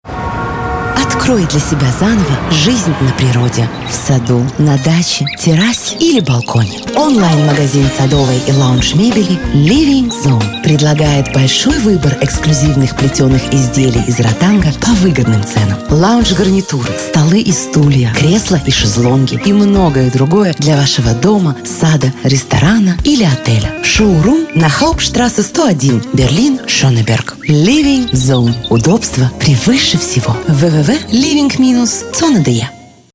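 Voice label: Russian, female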